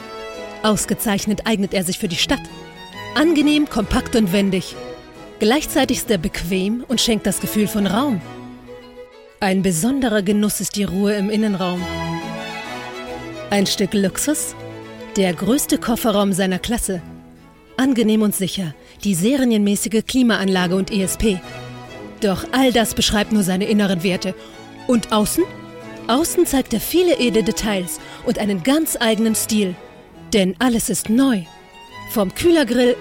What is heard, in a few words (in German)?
Lancia Reklame